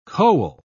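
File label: "koel", English, British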